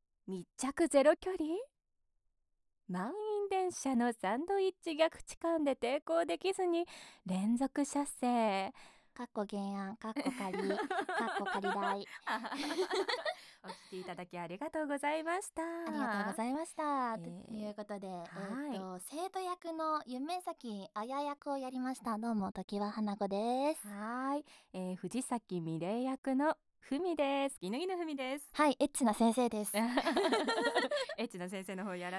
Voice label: FreeTalk, ASMR; 【即プレイ】密着ゼロ距離3P逆痴漢♪ 満員電車の誘惑サンドイッチで抵抗できずに連続射精